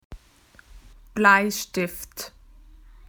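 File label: "paintbrush", Australian English; PictureDictionary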